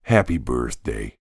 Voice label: human voice character american male 40s says happy birthday